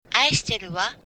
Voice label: i love you, japanese

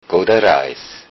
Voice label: bon voyage, lowsaxon

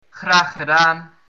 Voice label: you're welcome, dutch

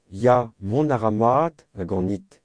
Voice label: fine, Breton

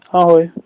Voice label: hello, slovak; Ahoj

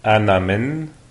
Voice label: im from, lebanese, arabic

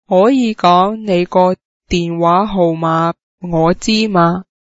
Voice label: can i have your phone number, cantonese

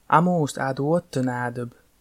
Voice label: long time no see, livonian

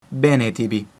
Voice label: "good health", Latin

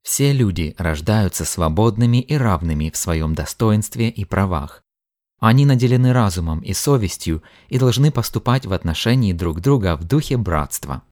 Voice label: udhr ru

